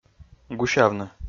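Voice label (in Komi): "гусявны", Komi, male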